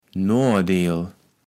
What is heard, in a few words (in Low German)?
"Noadeel", Platt